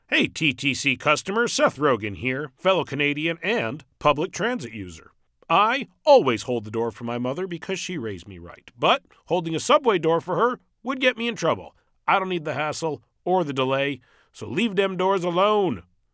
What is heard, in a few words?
Holding Train Doors, Public Service Announcements; Seth Rogen; TTC